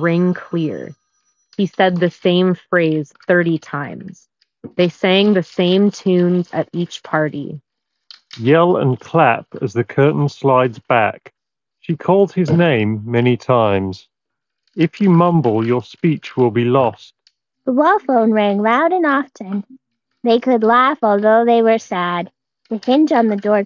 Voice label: Skullcandy Crusher ANC XT2 Office microphone sample